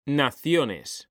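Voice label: "naciones", Spanish; mekanta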